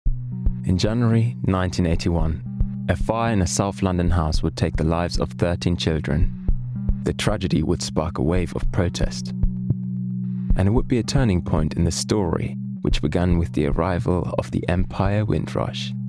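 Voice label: documentary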